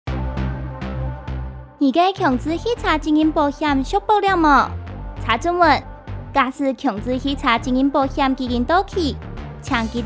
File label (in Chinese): 客語; 11月30日起，微型電動二輪車新車須投保強制險才能上路，現行已領有合格標章行駛上路的微型電動二輪車，應該在施行後2年內投保強制險。